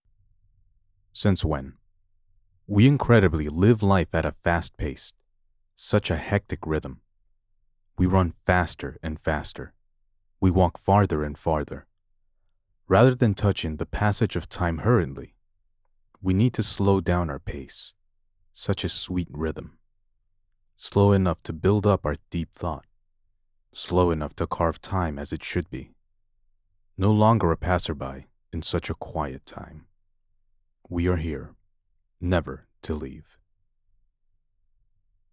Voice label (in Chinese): 外籍英男, 感性深情, 慢节奏